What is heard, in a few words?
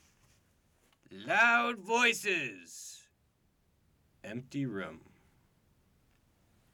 loud voices em troom